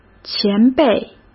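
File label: "前辈", Mandarin Chinese; chinese-flashcards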